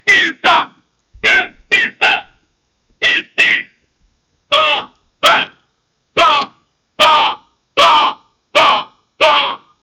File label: a fat man is shouting; violence